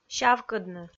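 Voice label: "сявкӧдны", Komi, female; kpv audio dict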